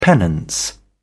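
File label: "penance", English, British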